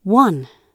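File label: "one", British English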